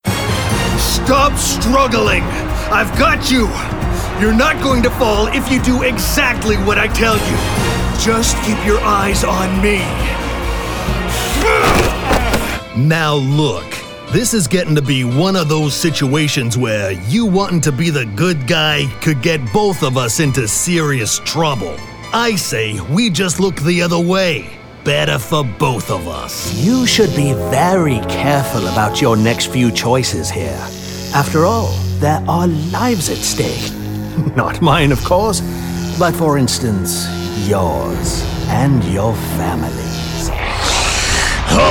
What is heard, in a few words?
English American video games